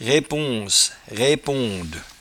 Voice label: "raiponce", patois jura

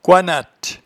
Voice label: "coinatte", patois jura

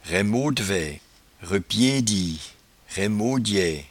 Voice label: "raimodvaie", patois jura

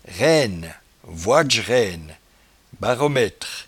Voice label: "rainnevoidge", patois jura

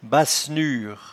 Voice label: "bassenure", patois jura

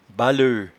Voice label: "baleut", patois jura

